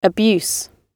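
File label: "abuse", British English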